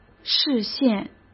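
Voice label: "视线", Mandarin Chinese; chinese-flashcards; hsk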